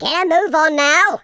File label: hurry, Footy Fan